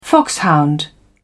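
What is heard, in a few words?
"foxhound", English, British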